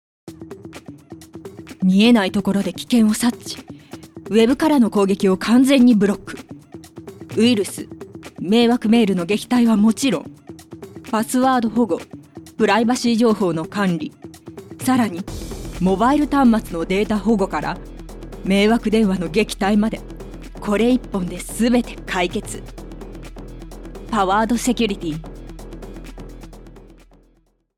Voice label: zoomin serious